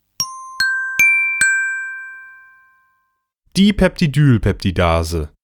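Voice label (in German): "Dipeptidylpeptidase", German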